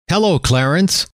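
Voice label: "hello", male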